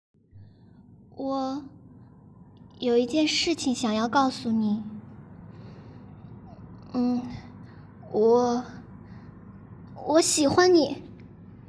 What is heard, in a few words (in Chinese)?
高中女孩